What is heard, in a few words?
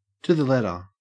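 "to the letter" Australian English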